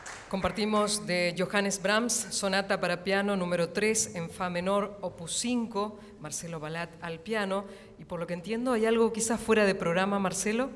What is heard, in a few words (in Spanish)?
Palabras Locutora